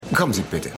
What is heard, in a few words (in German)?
Polizist, Stimmproben